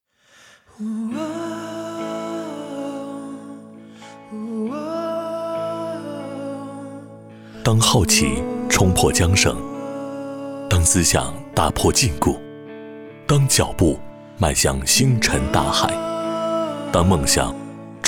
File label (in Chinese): 男 广告 年轻质感; 光大银行证券